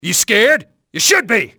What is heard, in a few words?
scared, terrorists; XBOX